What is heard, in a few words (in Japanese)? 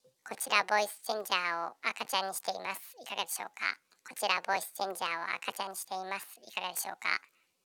ボイスチャンジャー 赤ちゃん